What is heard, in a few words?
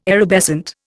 "erubescent", Indian